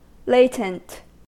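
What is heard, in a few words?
"latent", American English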